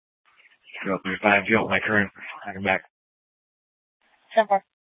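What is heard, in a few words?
Scottsdale Police Department South Dispatch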